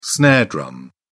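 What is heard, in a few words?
"snare drum", British English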